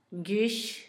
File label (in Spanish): orín, Zapoteco, Asunción Ocotlan; Cuerpo; INALI; Haspelmath